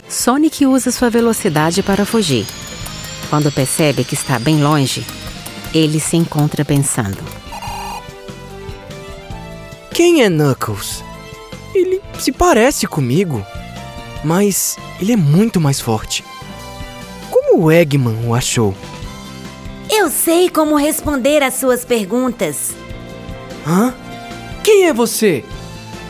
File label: Portuguese Brazilian animation